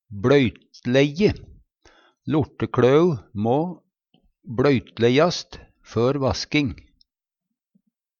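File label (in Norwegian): "bLøytlejje", Numedalsmål; Verb; Hushald, mat, drikke; Personleg utstyr, klede, sko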